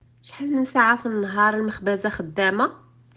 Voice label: Morrocan